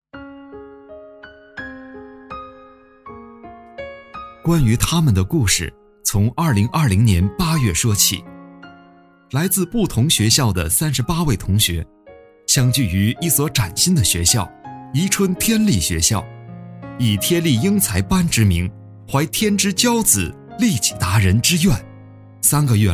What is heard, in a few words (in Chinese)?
政企宣传